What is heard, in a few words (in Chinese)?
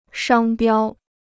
"商标", Chinese